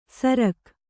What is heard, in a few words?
"ಸರ್ಗ", kannada